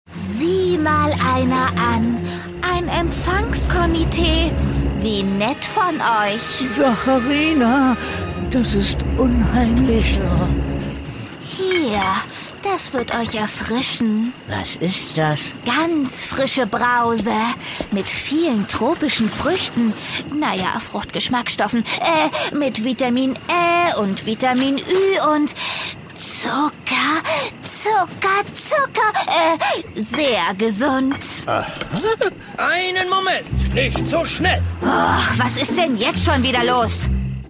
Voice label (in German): Hoerspiel; Kaeptn Kohlrabi